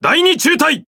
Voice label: Jp Speech Pilots Torpedo ID; World Of Warships